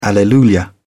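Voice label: "alleluia", British English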